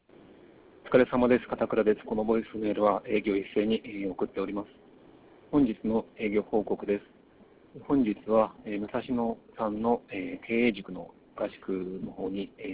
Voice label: voicemail; ボイスメール